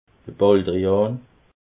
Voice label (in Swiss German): "baldrian", alsace